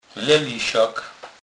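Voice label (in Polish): "lelysak", Kurpie dialect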